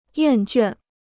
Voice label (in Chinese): "厌倦", Chinese